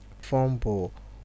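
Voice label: "fombu", Nigerian Pidgin, male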